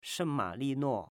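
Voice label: "Shengmalinuo", Chinese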